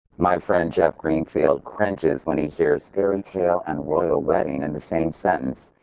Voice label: to male, speech warping; couric